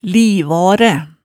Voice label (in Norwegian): "livaaræ", hemsedalsmaalet tale